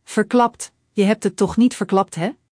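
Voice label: "verklapt", Dutch; Spelling Gr4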